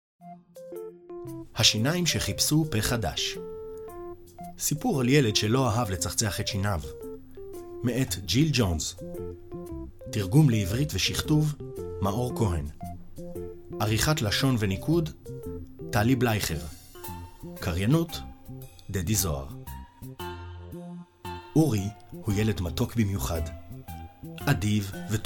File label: narration